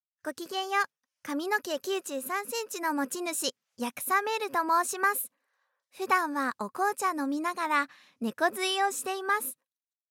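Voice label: self-introduction, Japanese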